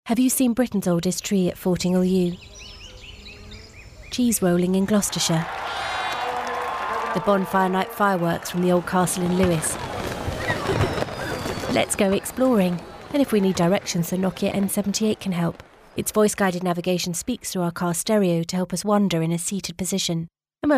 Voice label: radio; Nokia; Wieden+Kennedy London